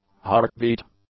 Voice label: "heartbeat", English; nouns